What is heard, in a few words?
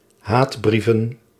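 "haatbrieven", Dutch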